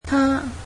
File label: "he", Cantonese